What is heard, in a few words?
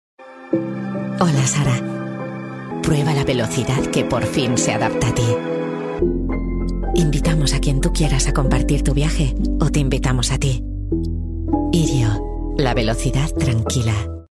spanish commercial; Spain; Spanish VOICEOVER talent who has worked extensively on radio and televisi